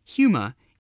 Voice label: "humor", voice spelling

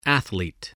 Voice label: "athlete", english

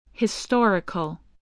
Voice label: "historical", English, spelling

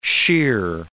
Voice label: "shear", words

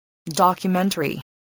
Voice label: "documentary", English

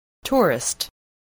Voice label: "tourist", voice

